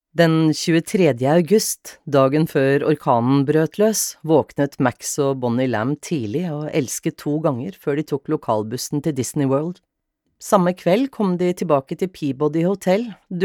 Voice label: Audiobook M Roman

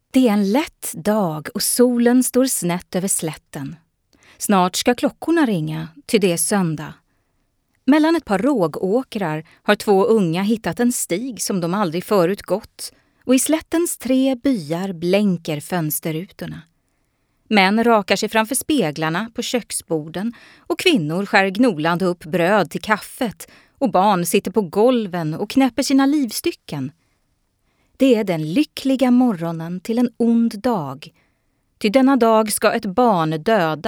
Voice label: SWE Audiobook f

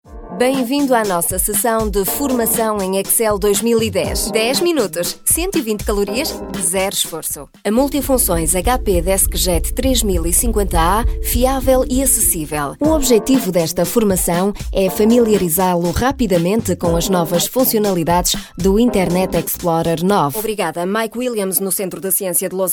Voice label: por f, voice samples commercial

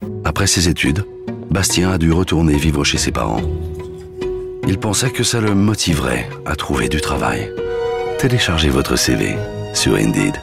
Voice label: FRE M com

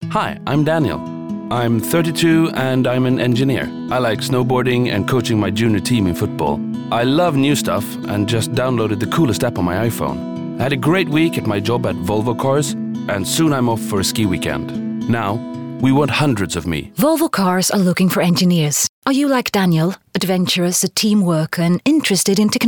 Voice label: se m eng

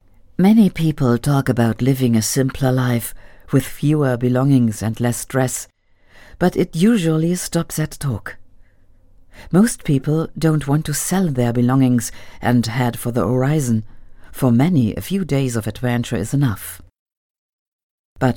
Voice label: eng for ger f info

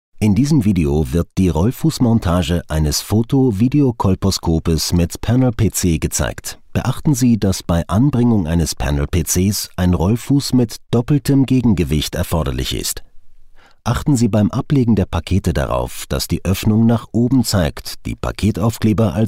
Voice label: ger m informative

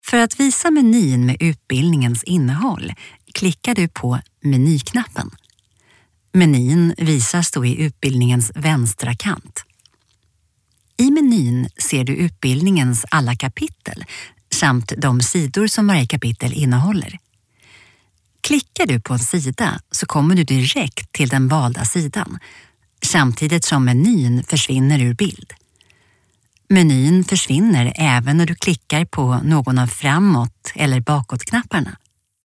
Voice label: informative

